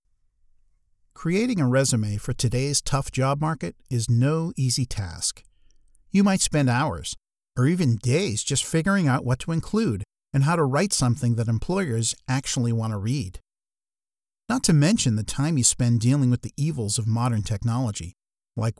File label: Explainer Narration